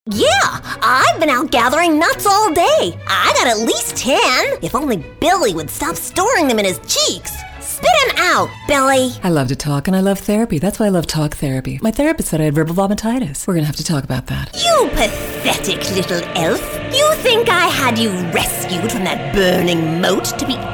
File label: Animation